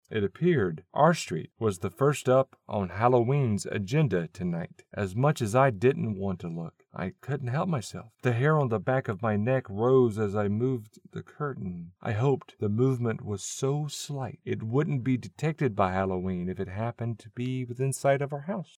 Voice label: Fiction Horror 1st Person